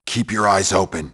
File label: area, nimble grunt